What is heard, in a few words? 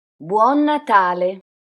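pronunciation it buon natale, Italian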